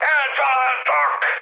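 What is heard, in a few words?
Hungarian aircraft destroyed mood high, speech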